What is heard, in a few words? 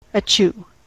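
"achoo", US English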